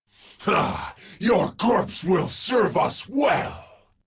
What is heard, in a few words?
Taunt, en